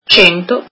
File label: one hundred, italian